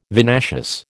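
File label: "vinaceous", American English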